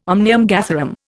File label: "omnium gatherum", Indian